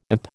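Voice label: "imp", American English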